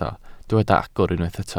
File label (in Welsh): "agor", Welsh